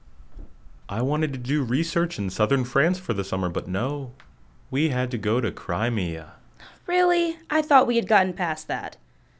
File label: Banter